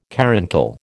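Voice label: "caruncle", American English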